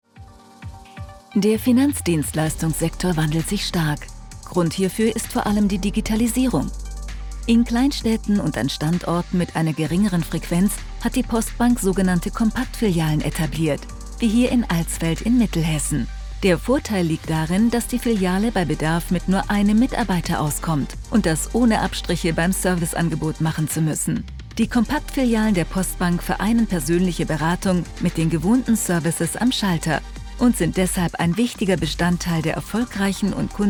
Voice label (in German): Imagefilm freundlich informativ; Glory Postbank